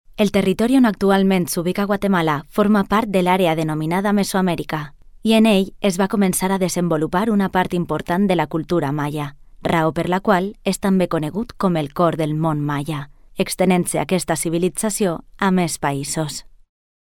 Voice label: locutora valenciana valencian voiceover; locutores valencianos

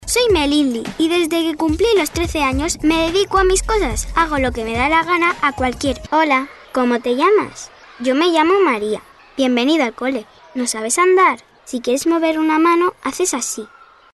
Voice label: locutora niña Spanish child voiceover

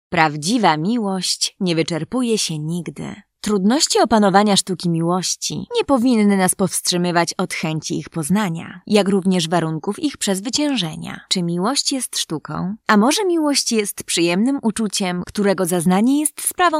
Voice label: locutora polaca polish voiceover; locutores polacos; Locutores de Polonia

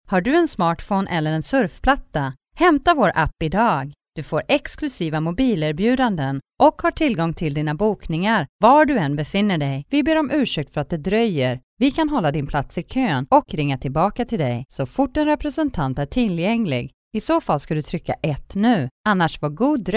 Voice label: swedish voiceover locutora sueca

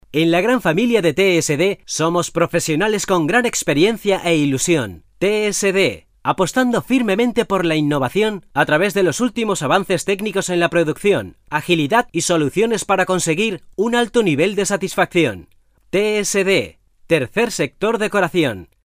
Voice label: locutor spanish voiceover

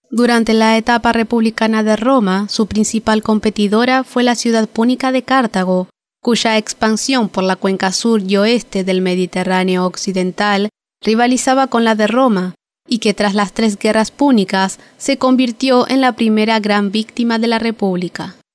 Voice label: locutora uruguaya uruguayan voiceover

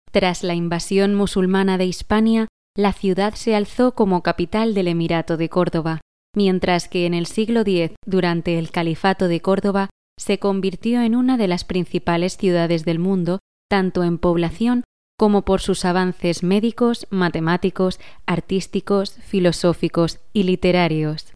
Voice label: locutora spanish voiceover

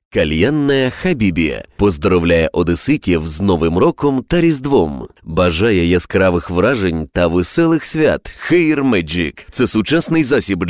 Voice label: ukrainian male voiceover locutor ucraniano

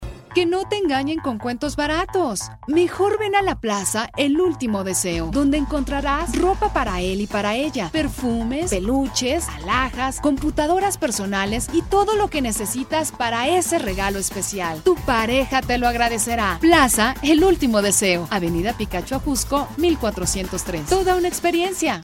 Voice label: locutora mexicana mexican voiceover; locutora nativa de México

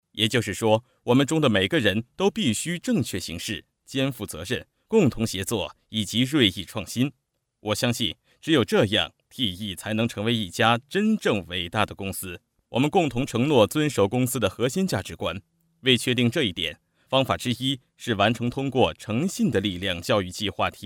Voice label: chinese male locutor chino voiceover

